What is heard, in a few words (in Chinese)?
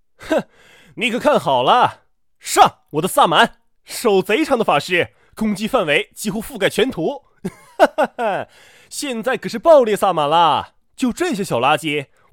录屏脚本夸张小兵